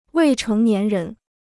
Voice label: "未成年人", Chinese

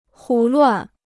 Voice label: "胡乱", Chinese

